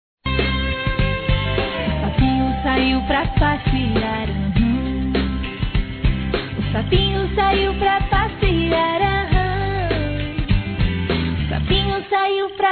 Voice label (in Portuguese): Aniv. Casamento dos Pais Filha enviando Feminino, Telemensagens; Aniversário Infantil